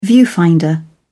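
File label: "viewfinder", British English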